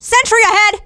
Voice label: scout sentry ahead, fem scout

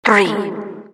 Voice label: announcer begins

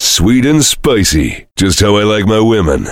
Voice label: heavy positive vocalization; tf; duke

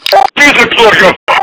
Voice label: hurt; tf; polkovnik

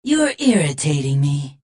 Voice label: battle cry; Adachi

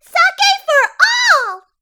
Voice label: battlecry; Suika

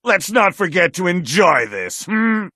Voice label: battlecry

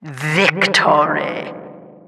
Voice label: announcer victory

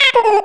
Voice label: rage; isabelle

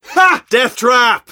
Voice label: rage; Wheatley

